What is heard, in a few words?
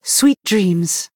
Female Night Elf Greetings